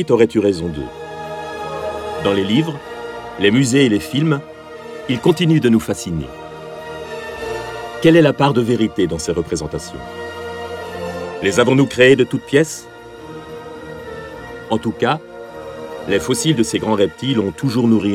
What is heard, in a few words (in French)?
NARRATION LES DINOSAURES